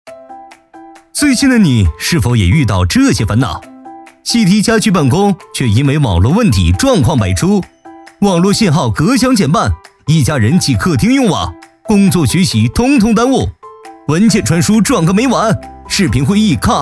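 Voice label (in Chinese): 动画宣传视频; 广州联通千兆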